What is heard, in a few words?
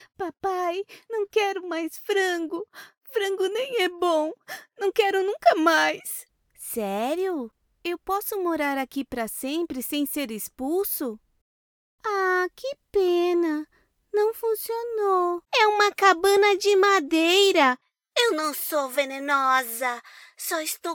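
Child or Animation Dubbing Brazilian Portuguese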